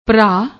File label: "bhraa", Punjabi